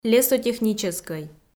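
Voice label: "лесотехническӧй" Komi, female; kpv audio dict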